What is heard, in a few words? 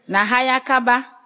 get well soon, ewe